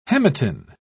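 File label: "hematin", British English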